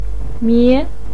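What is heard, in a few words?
"mie2", Chinese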